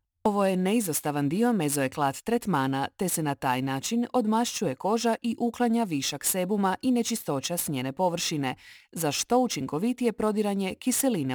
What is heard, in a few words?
presentation, croatian